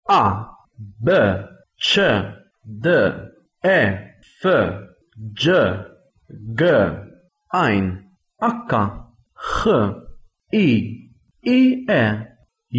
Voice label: alphabet maltese